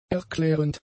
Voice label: "erklärend", German; adjectives